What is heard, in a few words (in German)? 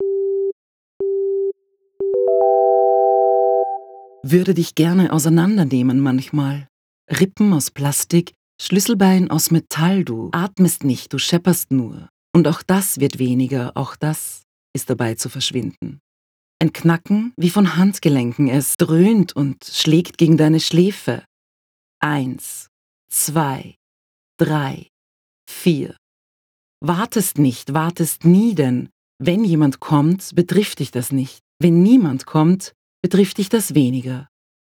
Sprecherin, no Effects; Literarisch-akustische, interaktive Ausstellung